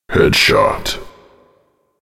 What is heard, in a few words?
headshot, announcer